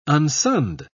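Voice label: "unsunned", British English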